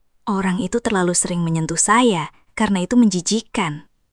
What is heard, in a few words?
indonesian phrases